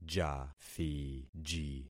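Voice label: "jathiji", concatenated syllables; Affixing experiment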